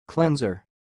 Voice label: "CLEANSER" noun